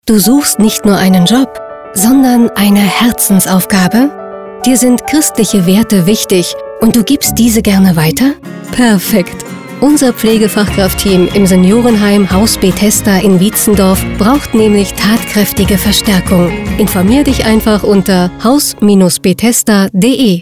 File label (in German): radio werbespot; bethesda